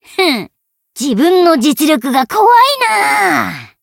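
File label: Voice win, Japanese; morgana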